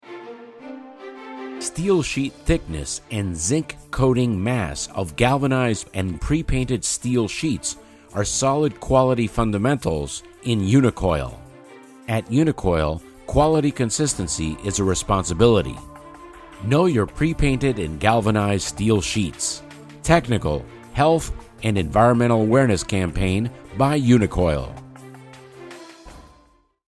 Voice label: Radio Spot Music